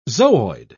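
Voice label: "zooid", British English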